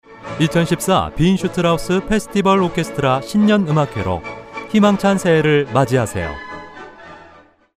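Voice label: Korean commercial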